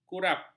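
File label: "kurap", Malay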